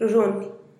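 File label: "ruzhunih", Teotitlán del Valle Zapotec; run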